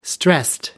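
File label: "stressed", English, British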